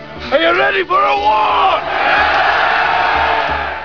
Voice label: boss ready for war, female